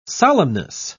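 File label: "solemnness", English, British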